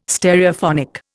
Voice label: "Stereophonic", Indian